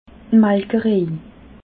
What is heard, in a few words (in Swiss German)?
"molkerej", alsace